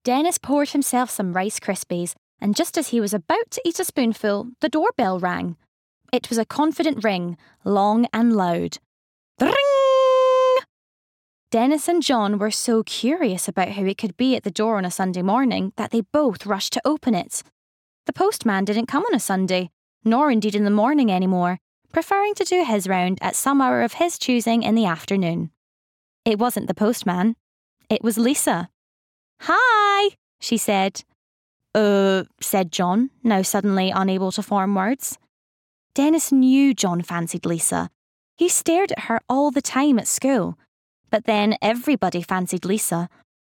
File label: Audiobook